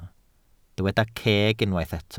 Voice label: "ceg", Welsh

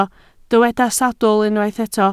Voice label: "sawdl", Welsh

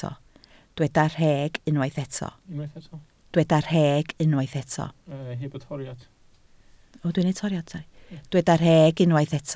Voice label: "rheg", Welsh

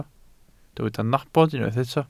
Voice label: "nabod", Welsh

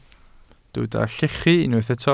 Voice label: "llechu", Welsh

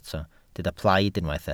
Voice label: "plaid", Welsh

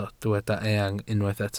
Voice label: "eang", welsh

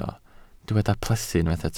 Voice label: "plethu", Welsh

